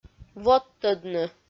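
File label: "водтӧдны", Komi, female; audio dict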